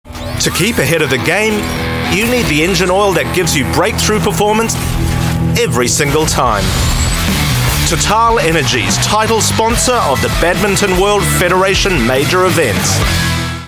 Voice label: NZ English; Total Energies